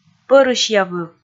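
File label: "пӧрысьявыв", Komi, female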